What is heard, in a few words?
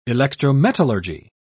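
"electrometallurgy", British English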